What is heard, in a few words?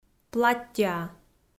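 "платтьӧа", Komi, female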